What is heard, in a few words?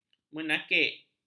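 "menakik", Malay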